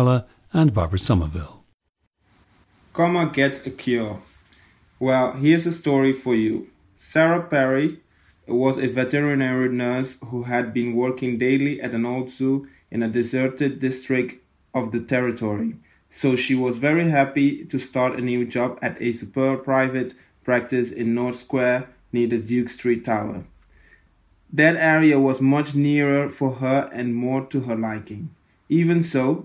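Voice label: curacao, dialects of English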